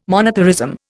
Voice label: "monetarism", Indian